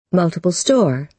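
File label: "multiple store", American English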